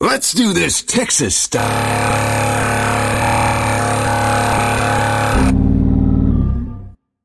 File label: rage engie; missingteam